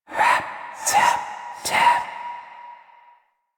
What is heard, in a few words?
Intelligible Whisper Rap Tap Tap C; Rell; Warframe; Harrow